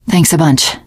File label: thanks, teen girl; voice; source engine; left 4 dead 2; survivor